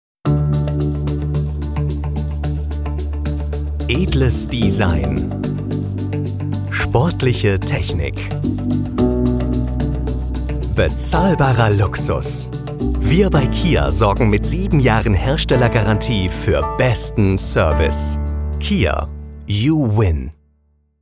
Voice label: Werbung

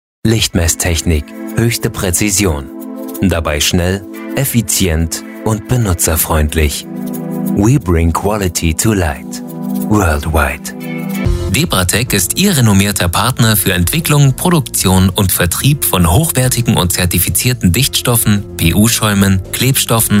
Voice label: Showreel Industrie

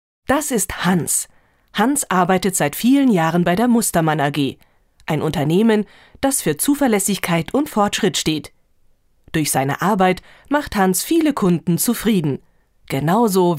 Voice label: Erklaerfilm

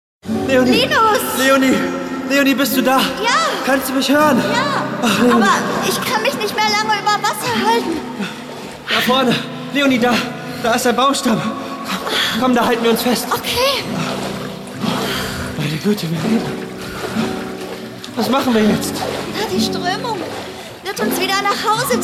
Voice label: Hoerspiel

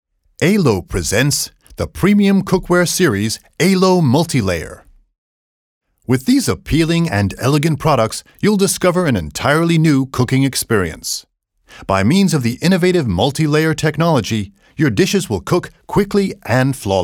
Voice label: US Englisch POS Werbung

